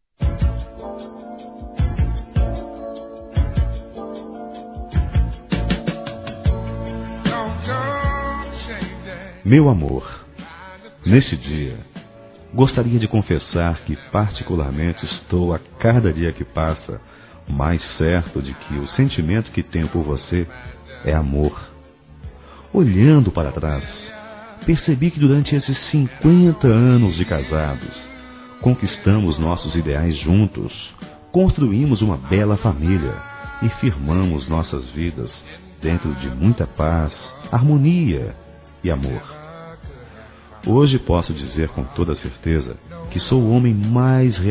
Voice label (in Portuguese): Bodas de Ouro Masculino, Telemensagens